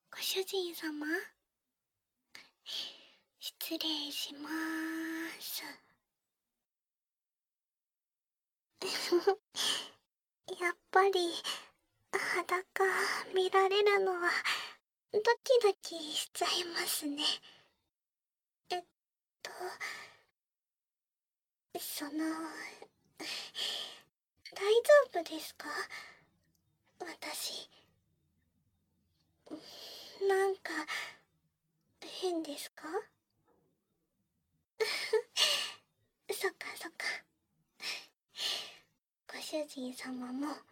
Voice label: 温泉でシャンプー（囁き重視編集 効果音なし）

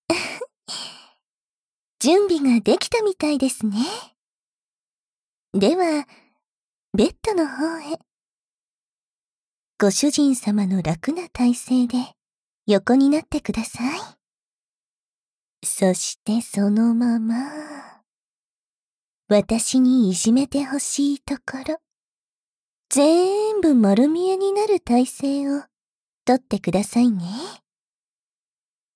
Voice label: 囁き乳首＆前立腺マッサージ, SE無し

